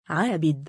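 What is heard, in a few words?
"3aabid" male, Arabic; عَابِد; アービド; 崇拝する者、崇拝者、しもべ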